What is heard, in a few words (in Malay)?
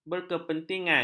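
"berkepentingan", Malay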